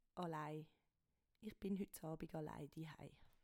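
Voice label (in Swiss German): "alai", Swiss German; Wortschatz Kapitel 1; Kapitel 1 Hauptwortschatz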